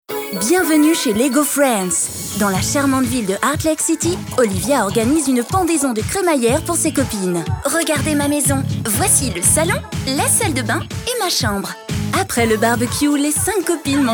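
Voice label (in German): French, Werbung, Dynamisch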